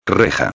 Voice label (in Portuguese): "reja", espanhol